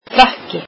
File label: icelandic coat